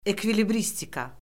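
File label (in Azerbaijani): "ekvilibristika", Azerbaijani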